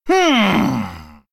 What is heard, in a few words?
Male Human Thinking; zh